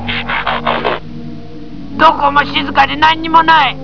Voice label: swoop japanese; "Me, Swoop, no see nothing" in Japanese; From The Transformers: The Movie JAPAN VERSION